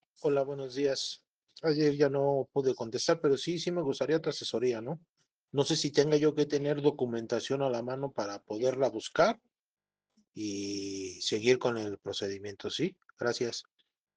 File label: whatsapp ptt